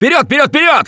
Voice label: emphatic go, russian; Gambler